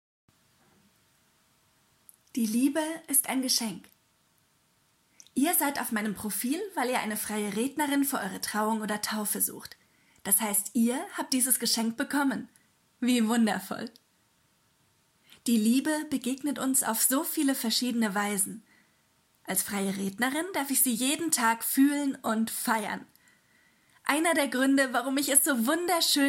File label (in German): Sprachprobe, Traurednerin; gefühlvoll & einzigartig; einfühlsame Reden